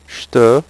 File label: "jete", French; HyperSound™2